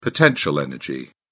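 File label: "potential energy", British English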